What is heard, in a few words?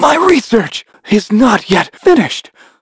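Vox Dead, voices heroes English; kingsraid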